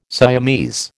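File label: "Siamese", American English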